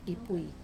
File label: "pui" come from behind, Wamesa